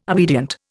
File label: "obedient", Indian